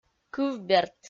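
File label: "кывберт", Komi, female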